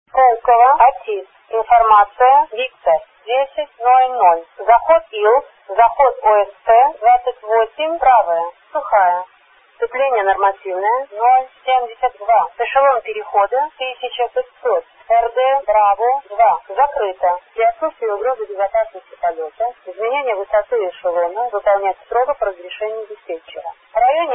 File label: atis rus